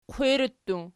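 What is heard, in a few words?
qwiri plural, Archi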